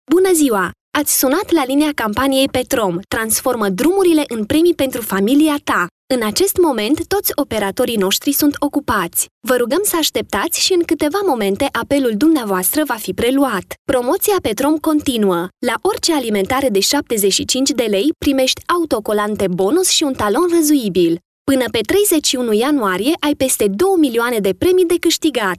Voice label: romanian ivr, Youthful Smiley Romanian Female Voice